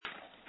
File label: call recording